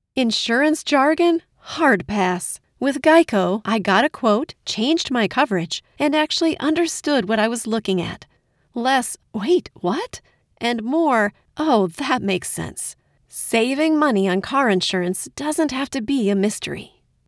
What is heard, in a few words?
Ad; Geico